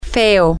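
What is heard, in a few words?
ugly, spanish, female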